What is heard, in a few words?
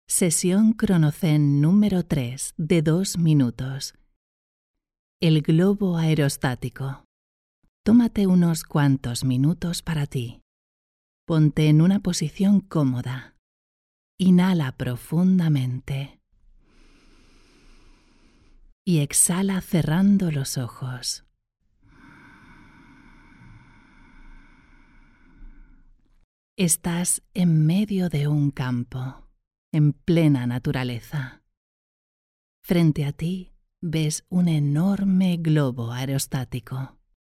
CASTILLIAN SPANISH RELAXED, commercial voiceover